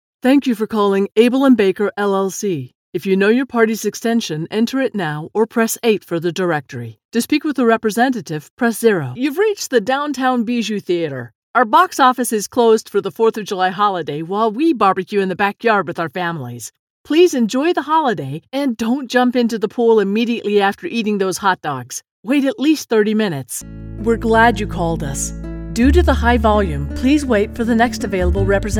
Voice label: Telephony IVR On Hold VoiceMail